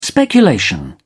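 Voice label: "speculation", English, British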